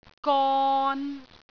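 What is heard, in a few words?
"gon3", Cantonese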